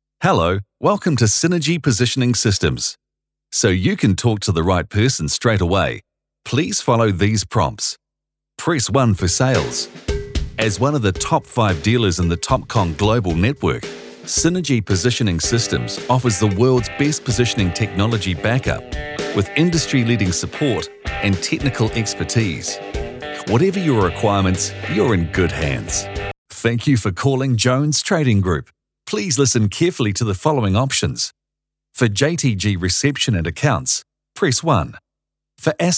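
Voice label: New Zealand Male Voice Talent Phone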